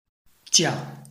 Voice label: "jia4", Chinese